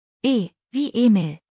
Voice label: "emil", deutsch; spell de; buchstabierung alt